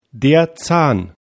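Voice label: "zahn", German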